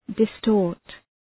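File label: "distort", English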